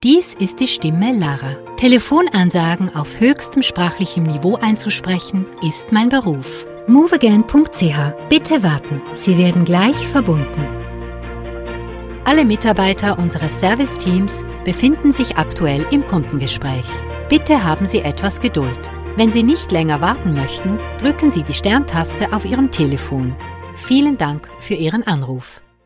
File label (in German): Telefonansage hochdeutsch